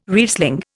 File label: "Riesling", Indian